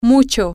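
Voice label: very a lot of, spanish, female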